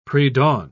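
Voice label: "predawn", British English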